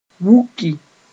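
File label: "wuki", Mooré; swell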